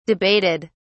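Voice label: "debated", British English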